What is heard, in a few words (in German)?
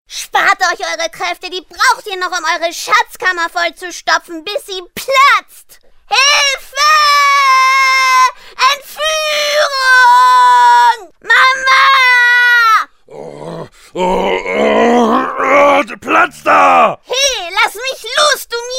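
Kinderstimme, Hörspiel; Kino; Die 3 Raeuber; Tiffany Entfuehrung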